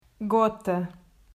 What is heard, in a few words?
"Gota", Portuguese